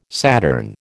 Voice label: "Saturn", American